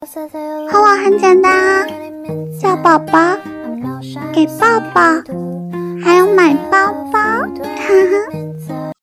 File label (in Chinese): 哄我 萝莉